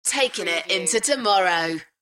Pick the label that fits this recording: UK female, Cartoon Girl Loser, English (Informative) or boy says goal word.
UK female